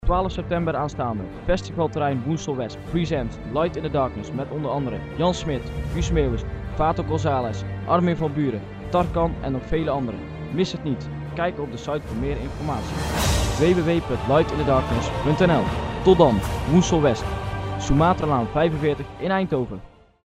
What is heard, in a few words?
festival commercial; reclame spotje voor het festival; radioactief; Voor het festival waar dit blok over gaat moet je ook reclame maken.